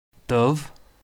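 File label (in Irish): "dubh", Irish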